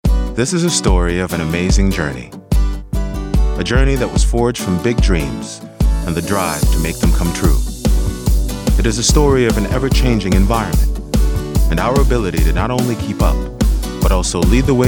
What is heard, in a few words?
Commercial